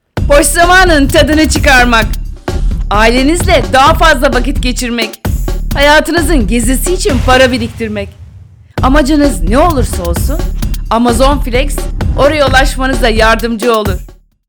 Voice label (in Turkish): reklam, Turkish, Female Middle Age